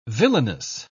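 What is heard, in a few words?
"villainess", English, British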